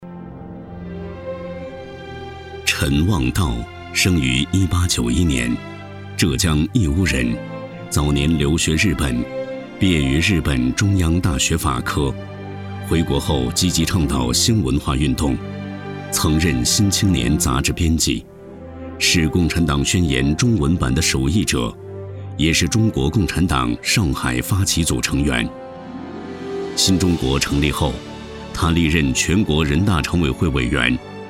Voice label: 男 人物 纪录片

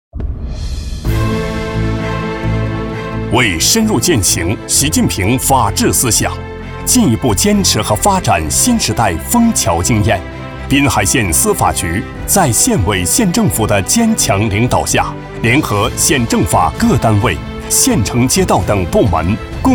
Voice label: 男 司法宣传

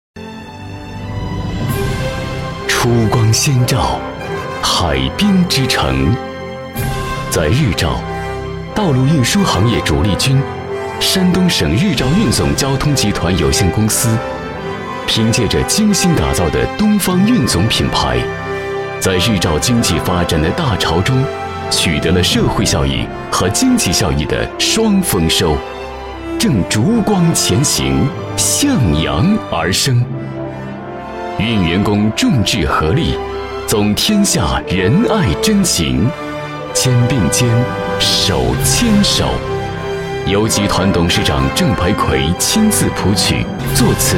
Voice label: 男, 大气宣传; 日照交通集团

